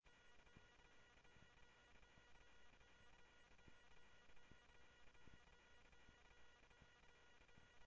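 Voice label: Sheriff West County, scanner audio trunk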